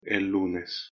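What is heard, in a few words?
"el lunes", Spanish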